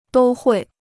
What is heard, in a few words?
"都会", Chinese